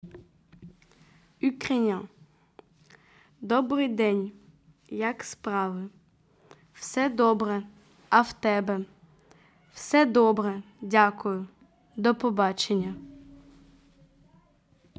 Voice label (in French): Ukrainien